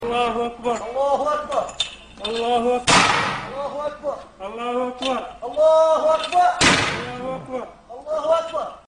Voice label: allahu akbar fail; in afghanistan